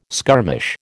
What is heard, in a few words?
"skirmish", American